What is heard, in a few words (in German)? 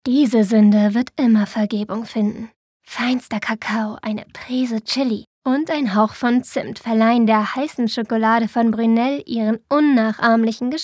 Sinnlich